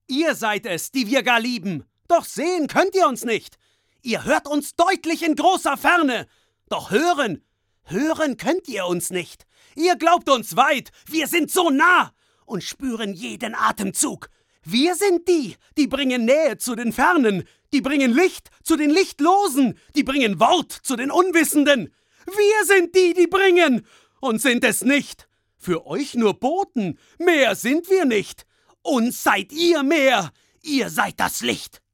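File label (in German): aggressiv, Sprecher, Männer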